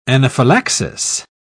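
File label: "anaphylaxis", British English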